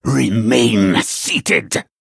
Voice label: Male Venthyr Attack